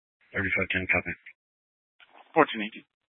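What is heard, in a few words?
Police Dispatch, Scanner audio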